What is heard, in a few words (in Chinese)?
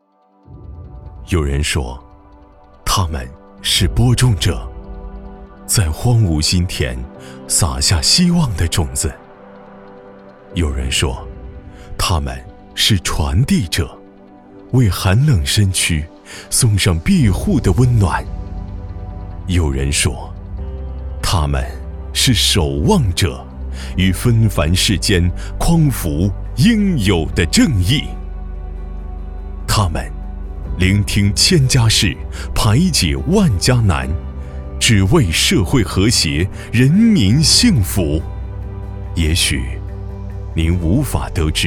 男 宣传 党建; 把心贴近人民信访干部试音